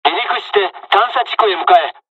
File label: fly, dialogs japanese; War Thunder